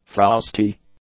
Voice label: "frowsty", English

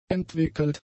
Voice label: "entwickelt", German; adjectives

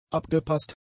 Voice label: "abgepasst", German; adjectives

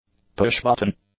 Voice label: "pushbutton", English; nouns

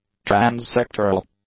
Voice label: "trans-sectoral", English; adjectives

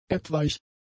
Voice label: "etwaig", German; adjectives

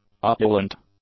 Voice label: "opulent", English; adjectives

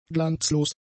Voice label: "glanzlos", German; adjectives

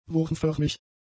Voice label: "wurmförmig", German; adjectives

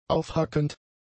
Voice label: "aufhackend", German; adjectives

